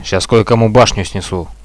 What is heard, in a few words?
attacking, Russian; bot, radio